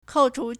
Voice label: "kou4chu2", Chinese